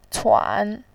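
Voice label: "cuan", Mandarin Chinese, third tone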